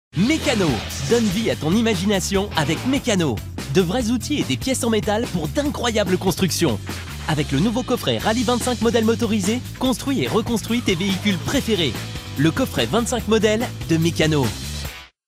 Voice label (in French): spot tv jouet; meccano